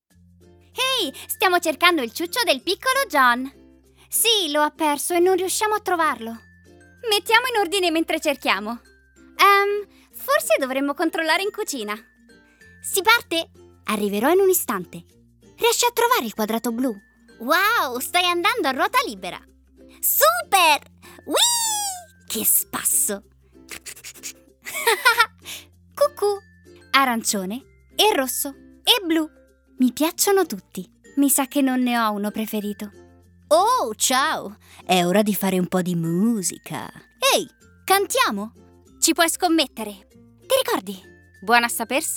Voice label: female Italian gaming animation; devine voices